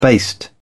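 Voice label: "baste", British English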